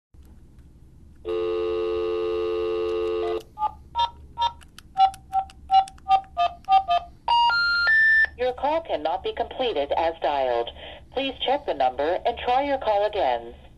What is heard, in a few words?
fios call cannot be completed as dialed